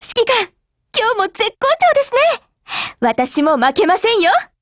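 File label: hello, Japanese; GF Alarm; Carcano1891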